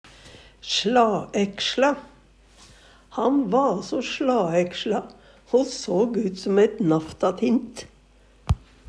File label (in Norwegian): "slaeksla", Numedalsmål